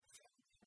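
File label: "сімгӧрд" Komi, female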